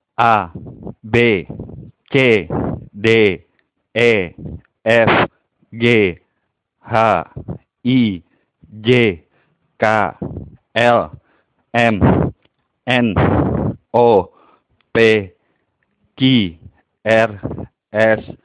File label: How to spell Indonesian Alphabets